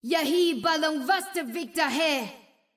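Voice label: Simplified Chinese; 秩序之光（守望先锋）; 终极技能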